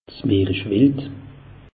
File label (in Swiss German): "meerischwild", alsace; meteo